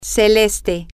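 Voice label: light blue, Spanish, female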